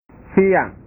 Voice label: "siang", bahasa

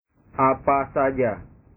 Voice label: "apa saja", bahasa

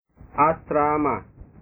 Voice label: "asrama", bahasa

